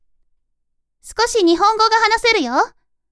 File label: woman